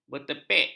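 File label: "bertepek", Malay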